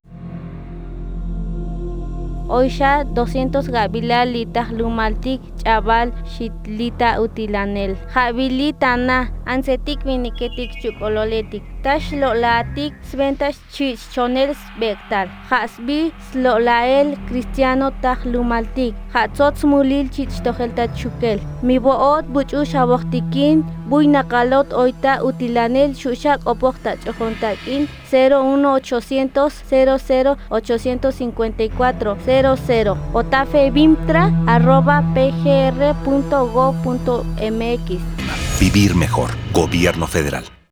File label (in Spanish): tsotsil del centro; Trata Sexual